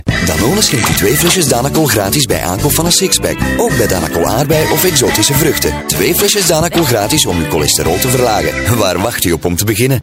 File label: Danone Commercial